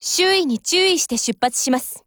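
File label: OPERATION BEGIN, Japanese; RO635 Mod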